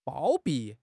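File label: "包庇", Mandarin Chinese; HSK; HSK6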